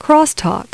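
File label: CROSSTALK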